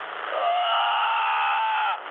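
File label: German damaged pilot, speech; War Thunder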